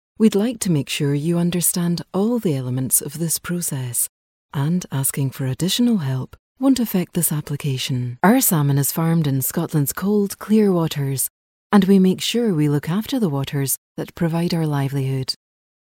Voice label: english scottish corporate videos; scottish voice actors